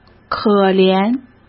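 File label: "可怜", Mandarin Chinese; chinese flashcards; hsk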